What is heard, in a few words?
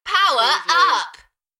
UK female Enthusiastic; beanstalk-audio